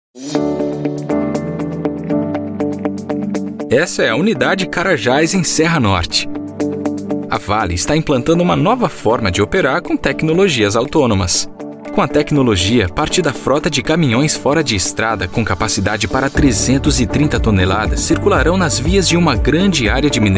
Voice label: Corporate Narration Presentations Middle Age; Vale